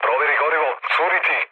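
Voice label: Serbian tank damaged mood low; War Thunder; speech srb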